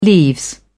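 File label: "leaves", British English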